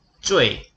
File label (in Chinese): "zhui", pinyin